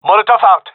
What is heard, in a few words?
throwing molotov, male; vo